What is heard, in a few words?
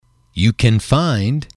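"you can find", English, male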